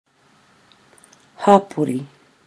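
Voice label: "hapori", Maori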